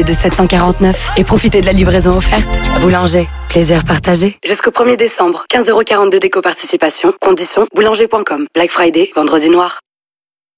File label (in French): PUB Lave linge; Boulanger; Samsung